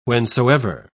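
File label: "whencesoever", British English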